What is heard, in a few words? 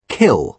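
"kill", British English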